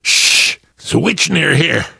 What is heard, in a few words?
heard witch, survivor voice; source engine; left 4 dead 2; namvet